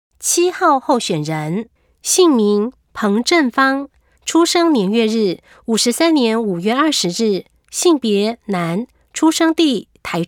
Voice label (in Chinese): 有聲公報, 國語; 臺中市; 市議員; 第08選區